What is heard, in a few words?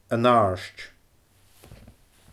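"An Àird", Scottish Gaelic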